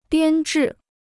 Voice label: "编制", Chinese